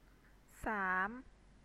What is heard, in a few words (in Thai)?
"saam", Thai